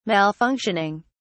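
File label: "malfunctioning", English, uk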